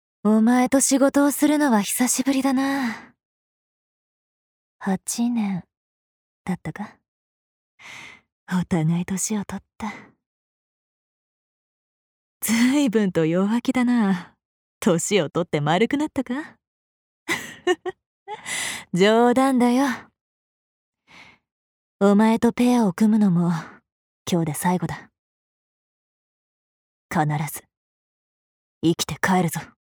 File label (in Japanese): アニメ